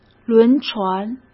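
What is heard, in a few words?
"轮船", Mandarin Chinese; hsk; chinese-flashcards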